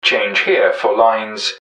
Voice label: change, english man, subway announcers; MetrostroiAddon